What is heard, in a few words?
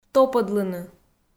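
"топӧдлыны", Komi, female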